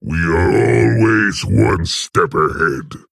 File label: Male Orc; Grommash; Jaina